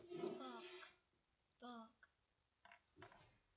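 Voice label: "bog", spoken word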